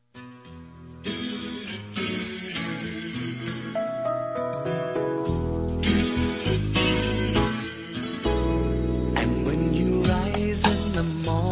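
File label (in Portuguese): Rom saia dessa rotina, feminino; Telemensagens; Românticas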